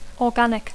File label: "organic", English